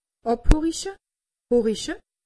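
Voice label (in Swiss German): "abhoriche", Alsatian; medecine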